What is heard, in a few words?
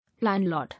"Landlord", English